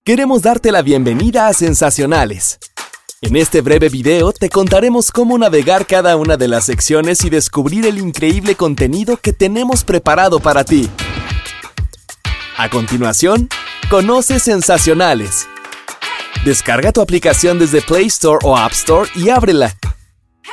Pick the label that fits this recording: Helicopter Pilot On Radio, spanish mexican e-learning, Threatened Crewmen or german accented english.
spanish mexican e-learning